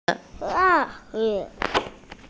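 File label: "wa zi", Mandarin Chinese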